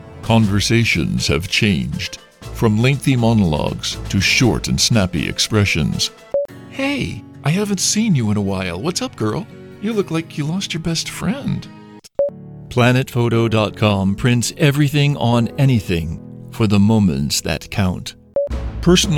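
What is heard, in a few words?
commercial english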